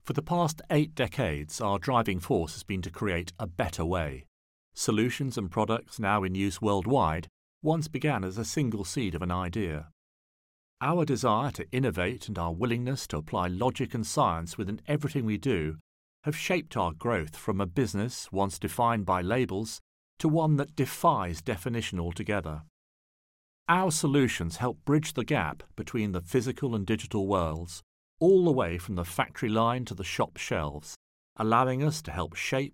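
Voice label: English UK, corporate; GoLocalise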